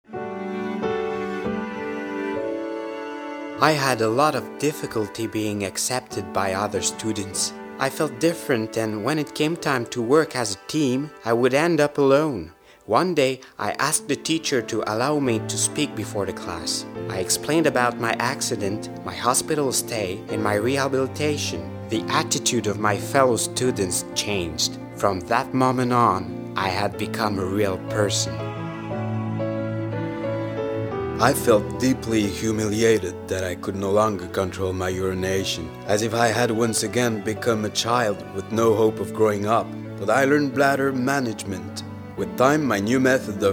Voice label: voix anglais

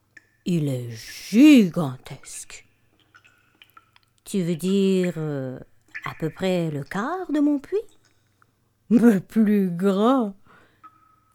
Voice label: narration grenouille

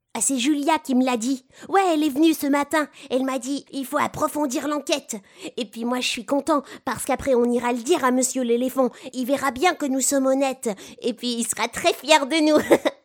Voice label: dessin anime

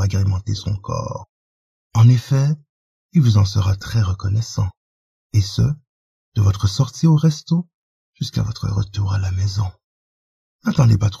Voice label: PARFUM SUBLIME pub

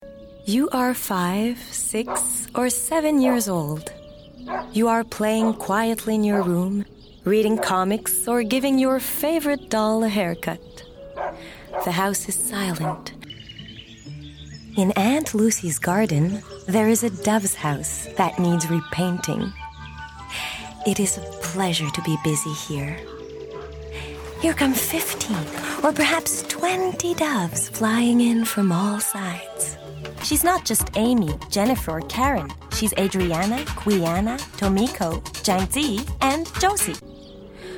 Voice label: narration anglais

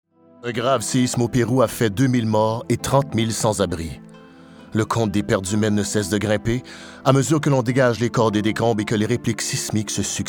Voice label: narration

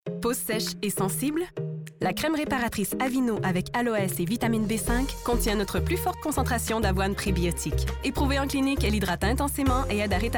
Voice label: annonceur

